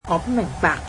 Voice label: I dont understand, cantonese

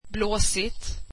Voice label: swedish windy

